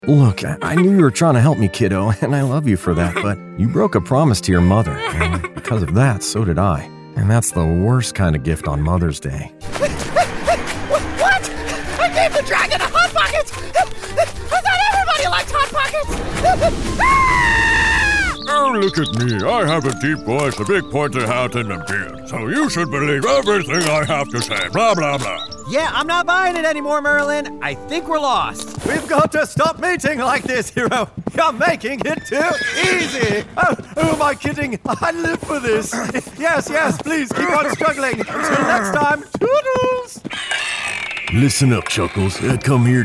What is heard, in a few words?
Animation